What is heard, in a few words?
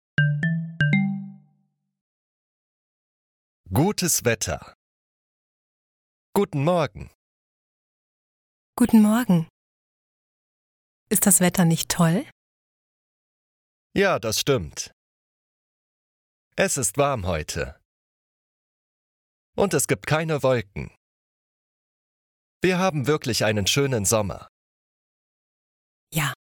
German Small Talk Slow